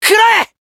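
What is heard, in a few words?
attack, Japanese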